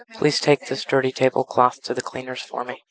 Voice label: speech est